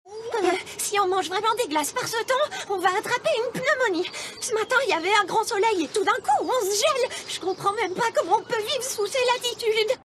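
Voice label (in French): DOUBLAGE - Dessin animée - méprisante, Comédienne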